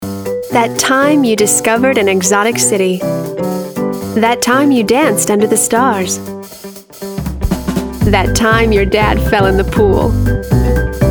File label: Commercials